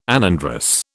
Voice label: "anandrous", British

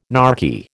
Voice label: "narky", American

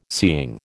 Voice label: "seeing", American

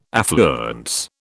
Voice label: "affluence", British

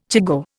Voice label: "chigoe", Indian

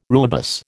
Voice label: "rooibos", American

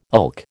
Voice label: "ilk", American

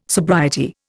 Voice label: "sobriety", Indian

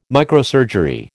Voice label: "microsurgery", American

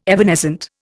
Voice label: "evanescent", Indian

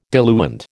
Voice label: "diluent", American

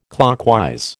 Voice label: "clockwise", American

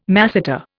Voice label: "masseter", Indian

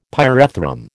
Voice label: "pyrethrum", American

